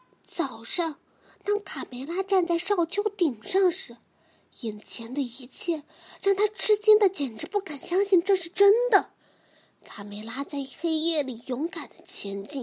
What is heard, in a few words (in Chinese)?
萝莉加少女旁白; 我想去看海